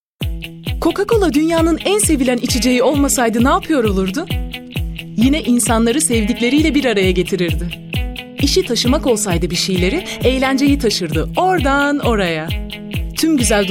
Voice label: turkish f commercial